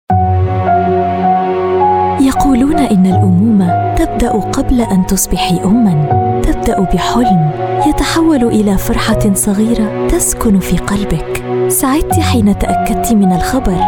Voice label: arabic voice over female commercial

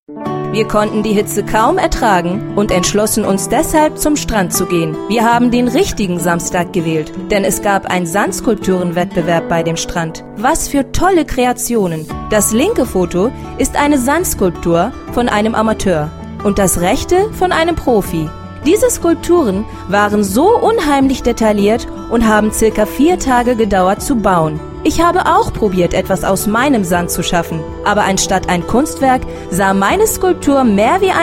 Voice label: germany voice over female narrator

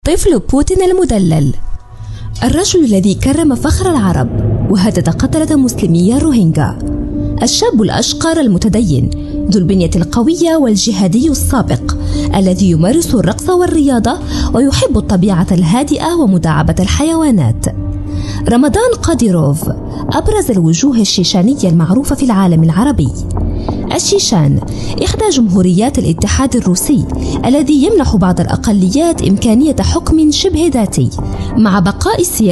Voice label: arabic voice over female narrator

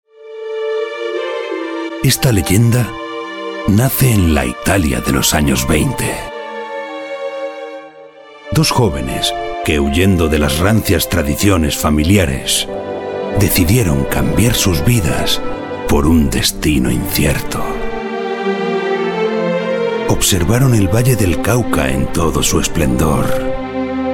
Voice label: spanish voice over male audiobook

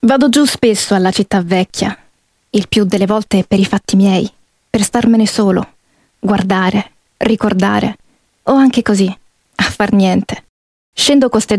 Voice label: italian voice over female audiobook

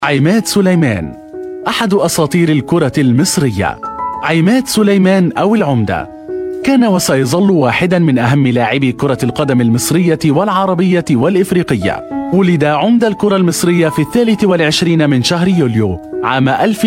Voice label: arabic voice over male documentary

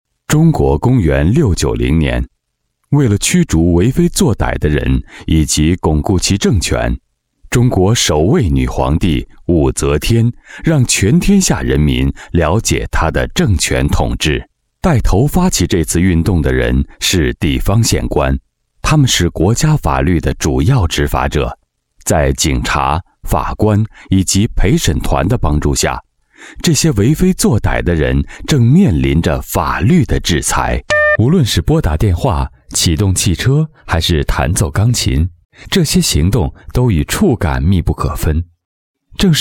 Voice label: chinese voice over male documentary

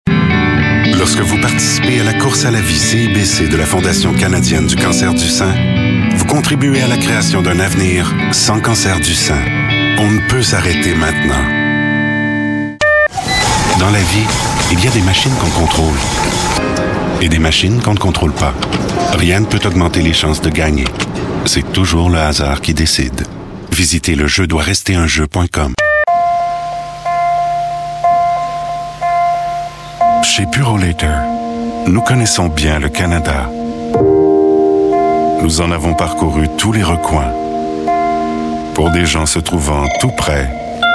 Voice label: french voice over male narrator

